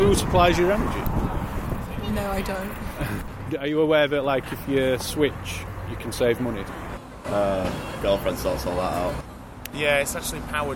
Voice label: energy market voxpop

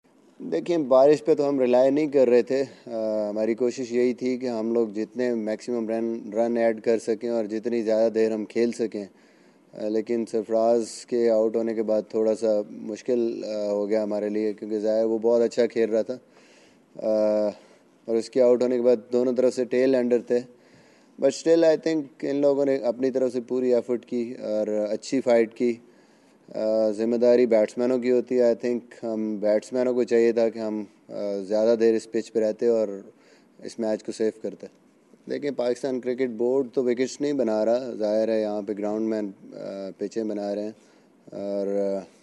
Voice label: media conference Urdu; misbah ul haq

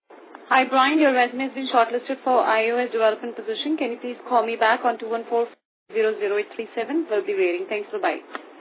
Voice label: voicemail; fastest voicemail from a job offer

